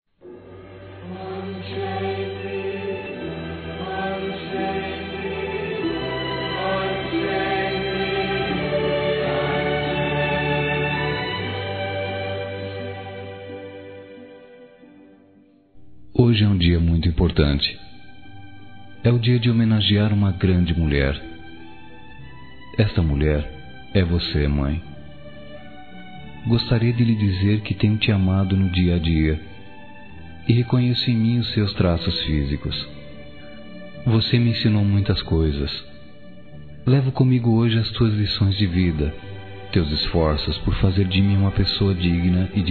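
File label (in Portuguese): HOMENAGEM P MÃE, Masculino; Dia das Mães; Telemensagens 2017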